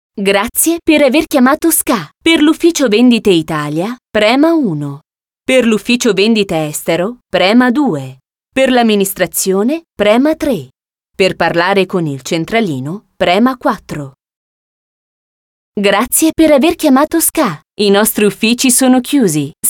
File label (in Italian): segreteria